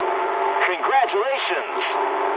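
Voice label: English player killed enemy mood high; speech; War Thunder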